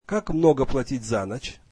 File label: russian What is the charge per night